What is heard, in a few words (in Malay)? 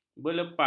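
"berlepas", Malay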